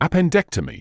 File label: "appendectomy", medical english uk